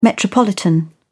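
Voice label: "metropolitan", British English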